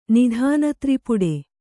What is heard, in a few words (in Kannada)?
"ನಿಧಾನತ್ರಿಪುಡೆ", Kannada